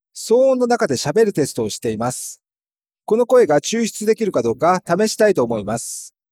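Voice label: Dialogue isolate processed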